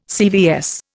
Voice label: "CVS", Indian